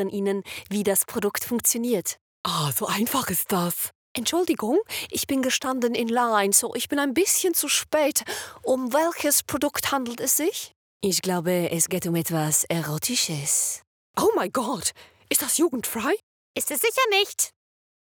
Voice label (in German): Werbung Akzente